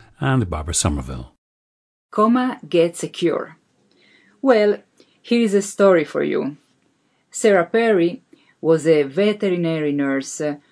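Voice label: italy, International Dialects of English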